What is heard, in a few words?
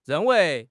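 "ren4 wei2", Mandarin Chinese; HSK3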